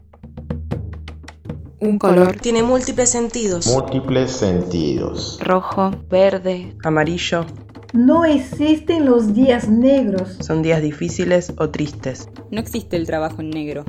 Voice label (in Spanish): RADIO CON cierre inst; Argentina es Afro